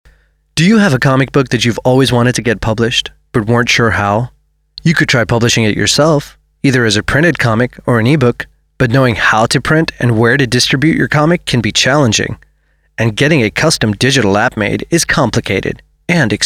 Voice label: American Accent